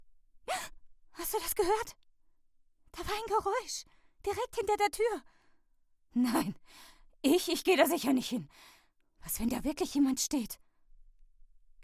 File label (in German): ängstliche Person